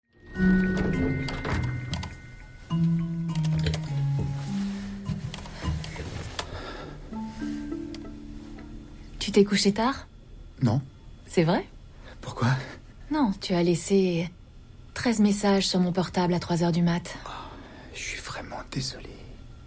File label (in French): Doublage; Sarah Solemani; doublage the wrong mans role de lizzie sarah solemani